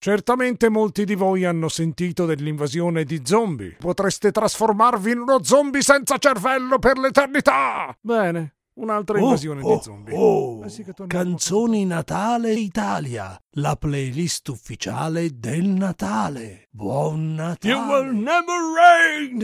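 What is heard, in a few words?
Italian, Videogame; GoLocalise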